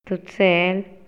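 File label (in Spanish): Maya, Yucatán, male; RELACIONES ESPACIALES; INALI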